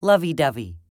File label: "lovey dovey", US English